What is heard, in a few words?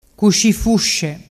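"cuscifusce", Vernazzese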